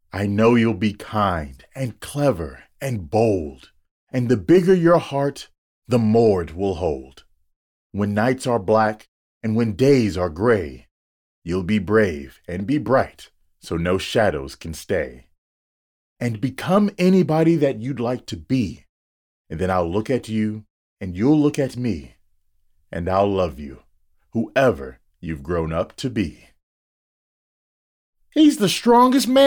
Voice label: Children Fiction